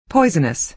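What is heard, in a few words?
"poisonous", American English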